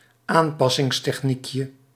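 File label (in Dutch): "aanpassingstechniekje", Dutch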